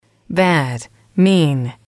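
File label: "bad mean", female, English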